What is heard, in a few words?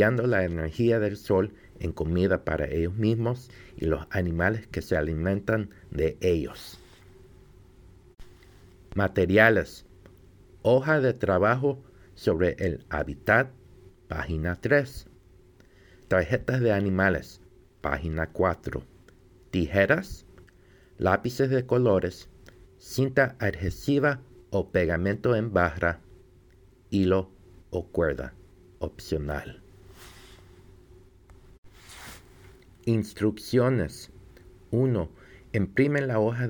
spanish kelp forest; calcoast